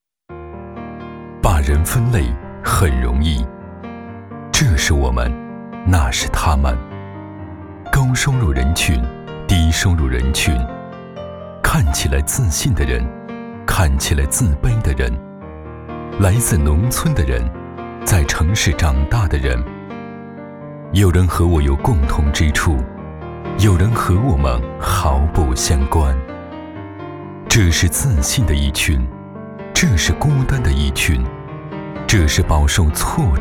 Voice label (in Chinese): 男, 广告, 独白; 中脉